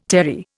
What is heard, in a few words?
"terry", Indian